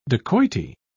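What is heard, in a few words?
"dacoity", British English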